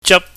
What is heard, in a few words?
"jap", chinese